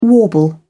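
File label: "warble", English, British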